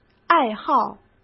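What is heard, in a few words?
"爱好", Mandarin Chinese; chinese flashcards; hsk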